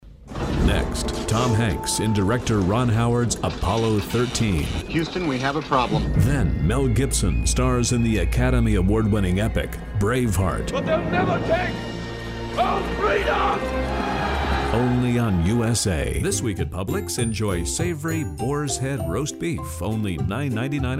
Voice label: Commercials